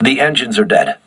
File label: voice message lost propulsion, crew ships english